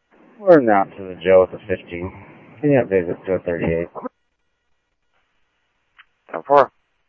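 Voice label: Mesa Police Department, scanner audio trunk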